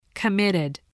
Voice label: "committed", voice